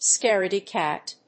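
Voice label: "scaredy-cat", English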